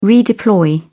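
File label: "redeploy", English, British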